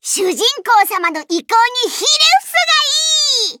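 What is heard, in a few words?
victory, Japanese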